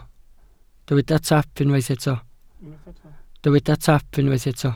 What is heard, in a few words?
"taap", Welsh